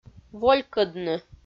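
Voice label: "волькӧдны", Komi, female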